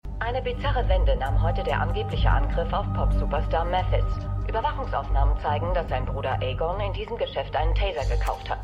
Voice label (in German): Nachrichtensprecherin, Stimmproben; LO SVU